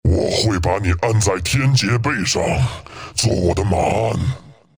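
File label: kill, chinese; dota2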